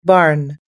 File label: "barn", us english